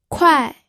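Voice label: "kuai", fourth tone, pinyin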